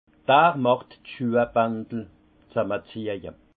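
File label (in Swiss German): "schuhebandel", Haut Rhin; vin; vin acide resserre les lacets de chaussures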